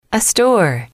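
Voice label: "a Store", female, english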